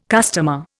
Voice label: "customer", Indian